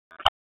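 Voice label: FIRE Operations, p25; FIRE OPS 2; wake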